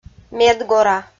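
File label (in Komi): "медгора", Komi, female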